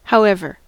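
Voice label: "however", American English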